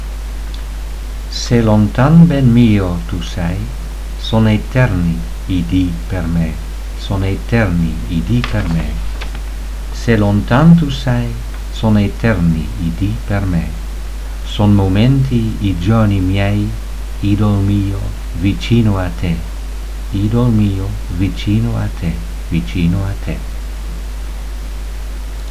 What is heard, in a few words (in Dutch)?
Uitspraak Se lontan ben mio tu sei